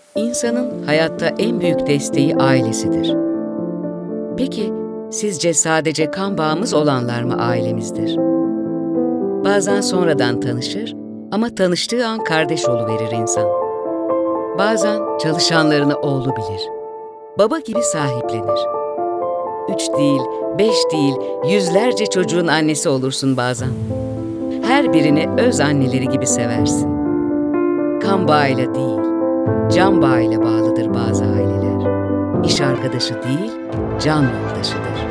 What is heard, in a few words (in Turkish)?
sicak samimi